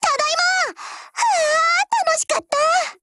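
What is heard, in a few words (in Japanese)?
operation over, Japanese; MP446Mod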